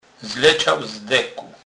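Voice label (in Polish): "dek", Kurpie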